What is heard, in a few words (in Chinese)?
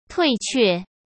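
"退却", Mandarin Chinese; hsk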